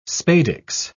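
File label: "spadix", English, British English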